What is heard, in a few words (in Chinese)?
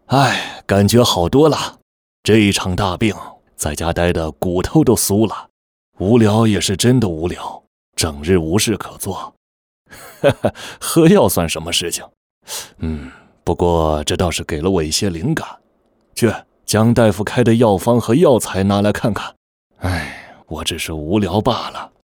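角色 男 中老年; 王懿荣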